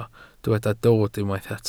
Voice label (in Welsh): "dod", Welsh